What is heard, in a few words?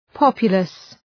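"populous", English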